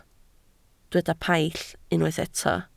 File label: "paill", Welsh